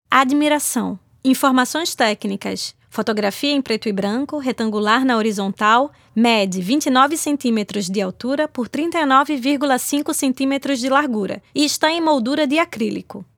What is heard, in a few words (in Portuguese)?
audiodescricao informacoes tecnicas; ExpoFotoclubismoPocos; Admiracao